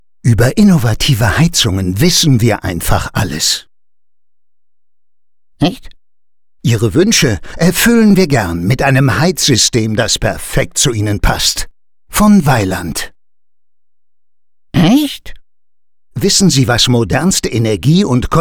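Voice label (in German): Imagefilm, Sprecher, Männer; Vaillant; Echt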